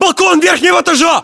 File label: location top floor balcony, ru; localized english